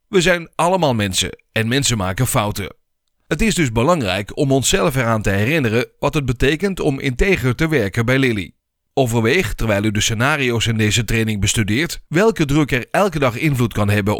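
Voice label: Dutch, male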